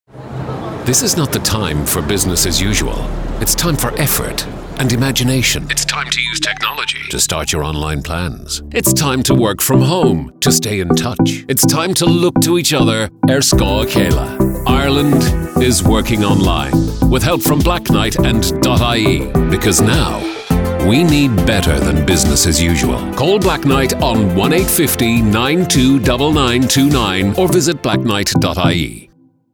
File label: Radio Ad; Blacknight